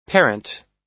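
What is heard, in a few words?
"parent", US English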